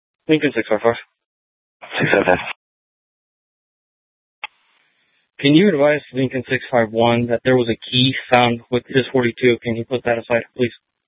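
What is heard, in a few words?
Sheriff, Parks, Sheriff radio scanner; Maricopa County (P25) - Thompson Peak; Shrf Mnt (Parks)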